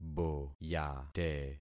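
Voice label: "boyate", concatenated syllables; Affixing experiment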